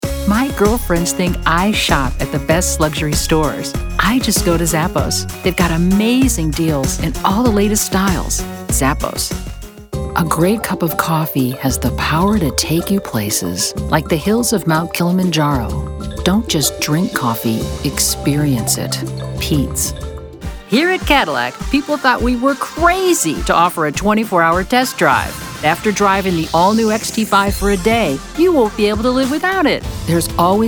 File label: Commercial